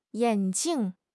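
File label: "yan3 jing4", Mandarin Chinese; HSK3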